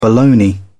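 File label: "boloney", British English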